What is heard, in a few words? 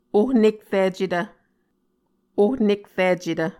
"ohnekricetv", Muscogee